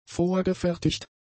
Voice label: "vorgefertigt", German; adjectives